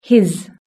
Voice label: "his", british english